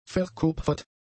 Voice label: "verkupfert", German; adjectives

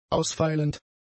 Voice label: "ausfeilend", German; adjectives

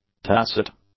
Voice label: "tacit", English; adjectives

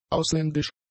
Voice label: "ausländisch", German; adjectives

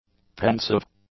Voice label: "pensive", English; adjectives

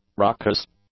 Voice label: "ruckus", English; nouns

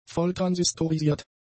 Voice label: "volltransistorisiert", German; adjectives

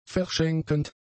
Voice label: "verschenkend", German; adjectives

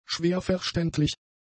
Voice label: "schwerverständlich", German; adjectives

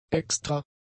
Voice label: "extra", German; adjectives

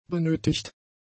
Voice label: "benötigt", German; adjectives

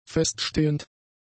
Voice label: "feststehend", german; adjectives

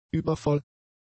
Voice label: "übervoll", German; adjectives

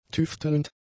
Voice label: "tüftelnd", German; adjectives

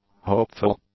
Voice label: "hopeful", English; adjectives

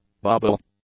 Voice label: "bubble", English; nouns

